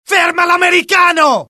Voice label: alert, dialogue IT; mohaa; attack